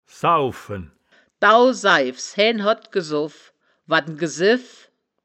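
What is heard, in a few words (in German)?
"saufen", wittlicher woerterbuch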